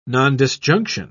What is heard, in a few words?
"nondisjunction", en uk